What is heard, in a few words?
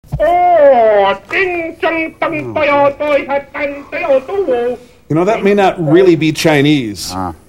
chinese